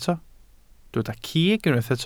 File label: "cig", welsh